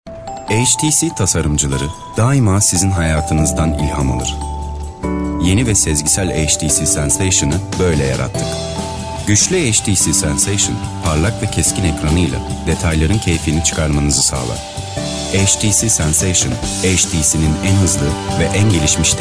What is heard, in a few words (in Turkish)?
ERKEK